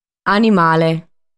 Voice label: "Animale", sardu

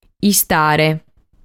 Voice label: "Istare", sardu

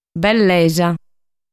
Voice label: "Bellesa", sardu

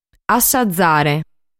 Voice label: "Assazare", sardu

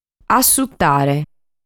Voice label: "Assutare", sardu

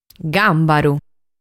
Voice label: "Gàmbaru", Sardu

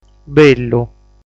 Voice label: "Bellu", sardu

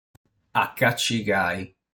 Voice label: "Acacigai", sardu

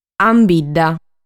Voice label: "Ambidda", sardu

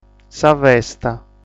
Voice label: "Festa", sardu

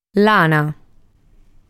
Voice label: "Lana", sardu

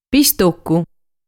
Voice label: "Pistocu", sardu

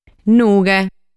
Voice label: "Nughe", sardu

